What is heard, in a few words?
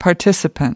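"participant", US English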